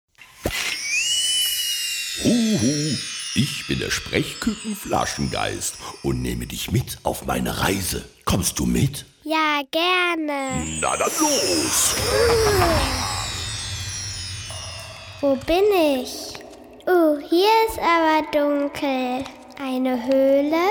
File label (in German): Hoerspiel